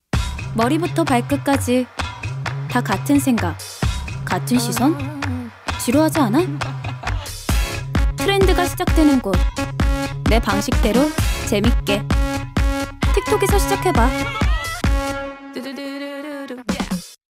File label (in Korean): 광고 MZ 쿨한 당당한 샘플; 틱톡